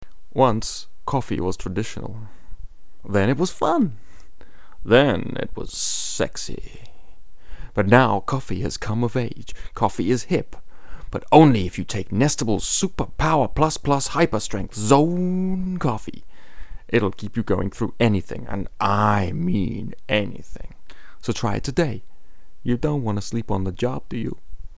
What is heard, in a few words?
Coffee Ad